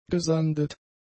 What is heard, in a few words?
"gesandet", German; adjectives